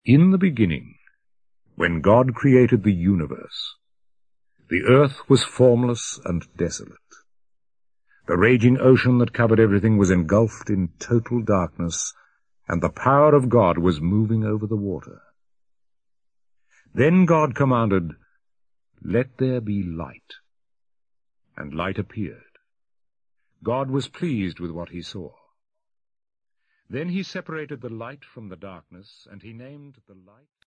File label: good news english; eng english anglicized accent audio bible